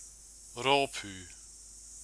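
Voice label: "ropu", Maori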